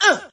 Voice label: "uh", male